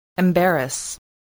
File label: "embarrass", spelling